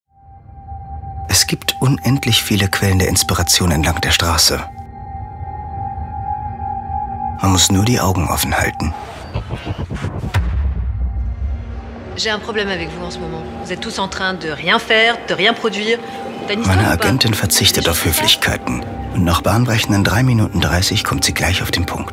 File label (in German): sprachprobe synchron